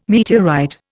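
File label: "meteorite", English, Indian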